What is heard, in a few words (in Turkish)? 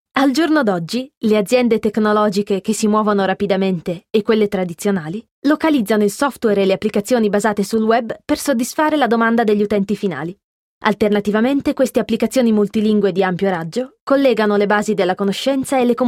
Italyanca Kadin; seslendirme